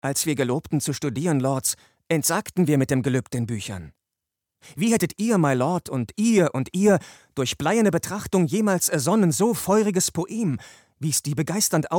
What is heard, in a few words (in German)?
Synchron